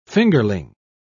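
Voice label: "fingerling", British English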